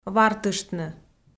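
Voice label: "вартыштны", Komi, female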